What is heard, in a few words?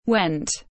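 "Went", English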